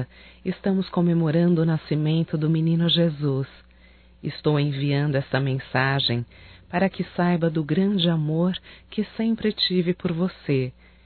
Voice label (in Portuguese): NATAL NETA (F); Natal e ano novo; fem; Telemensagens 2017